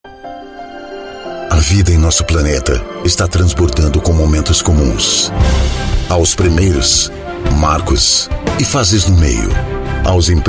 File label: Brazilian Portuguese Female Commercial